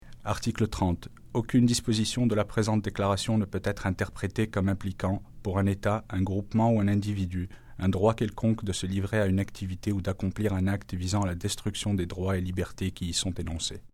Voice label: French